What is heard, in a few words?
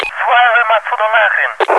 blow, radio, russian; cstrike